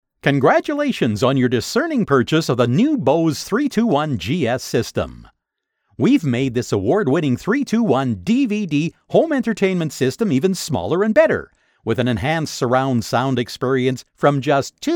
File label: english american training e-learning